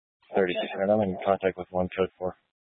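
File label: Police Dispatch, Scanner audio; Police 1 Dispatch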